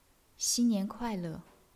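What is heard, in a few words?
"xin1nian2kuai4le4", Chinese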